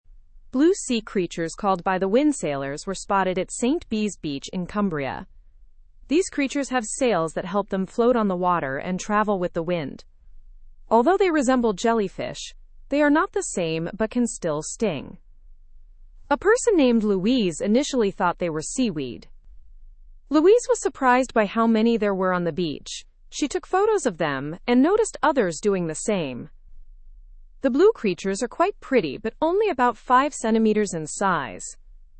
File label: english news lower intermediate fast; by the wind sailors visit st bees beach